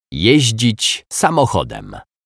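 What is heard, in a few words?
"jezdzic samochodem", Polish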